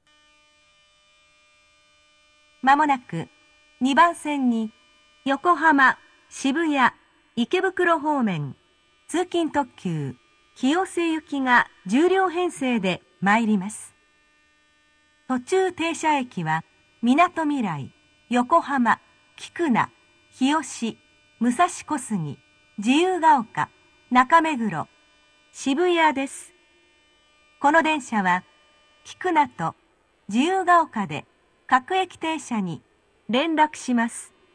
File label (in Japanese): 接近放送; 通勤特急清瀬行 馬車道駅 まもなく２番線に横浜・渋谷・池袋方面、通勤特急清瀬行が１０両編成で参ります。途中停車駅はみなとみらい・横浜・菊名・日吉・武蔵小杉・自由が丘・中目黒・渋谷で; minatomirai